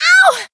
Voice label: Voice damaged; lingli